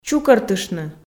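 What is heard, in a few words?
"чукӧртыштны", Komi, female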